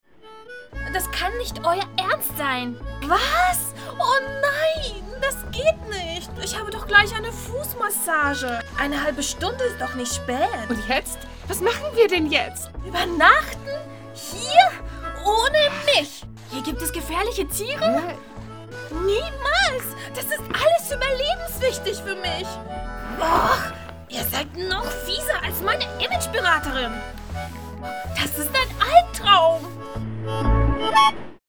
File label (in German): Comedy-Hörspiel Reiche Blondine